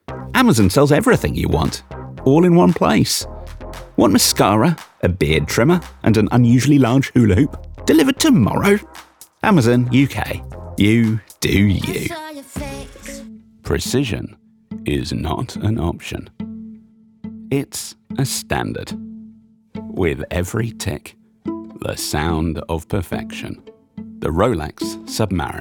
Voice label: Commercial, British Male Voice Actor, British RP voice; Commercial Reel; Your British RP voice for Commercials, Character, Narrative and Audiobooks